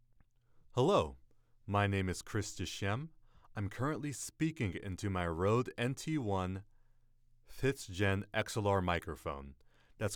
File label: Vocal Booth Sound Sample